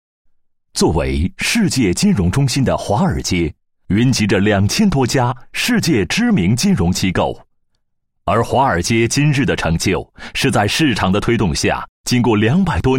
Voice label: 男 宣传片, 配音; 宣传片文案; 梧桐小微宣传片文案

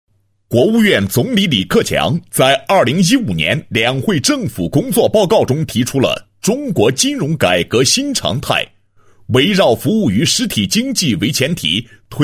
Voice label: 男 企业 视频宣传片文案; 伊势泰

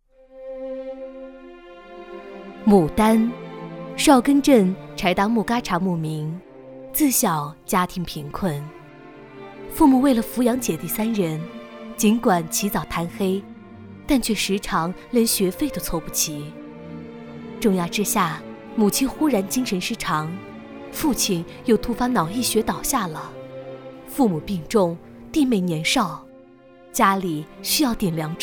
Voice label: 女 人物叙述 牡丹 自然叙述

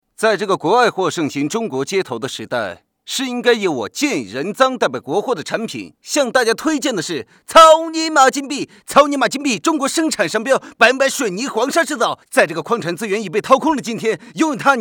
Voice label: 男 模仿; 模仿陈赫

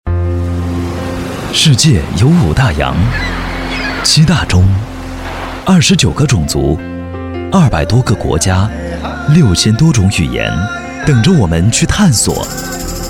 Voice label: 男, 旅行片花

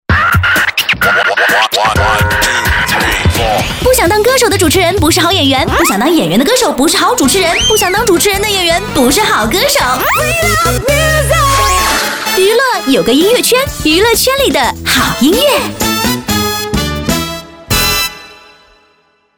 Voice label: 女 电台宣传 年轻俏皮

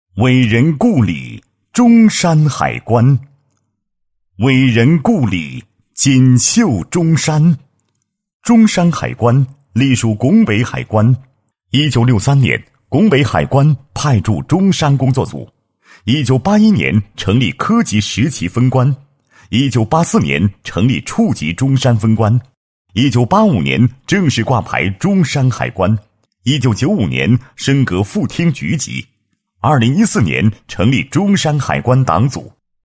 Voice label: 男 海关宣传 形象宣传简介; 中山海关

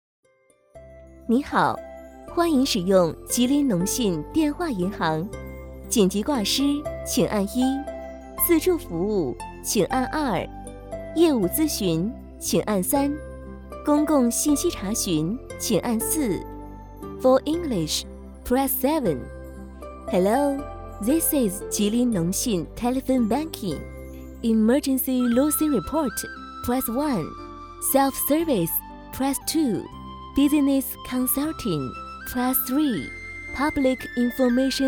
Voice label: 女 中英文银行彩铃

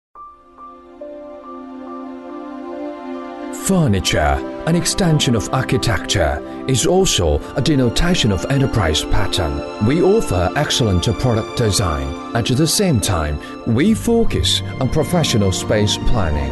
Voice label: 英男; 美格利生家具定制

